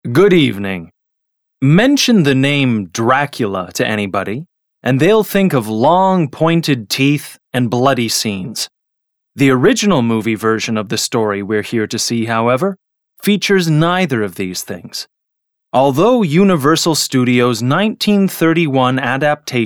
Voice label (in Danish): Dokumentar